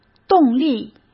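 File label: "动力", Mandarin Chinese; hsk; chinese-flashcards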